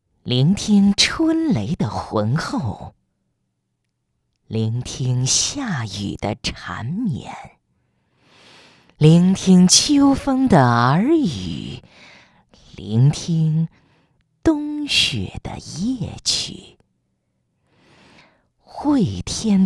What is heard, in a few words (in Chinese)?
女-广告-成熟; 惠天听书